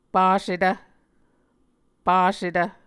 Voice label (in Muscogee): "pasetv", Muscogee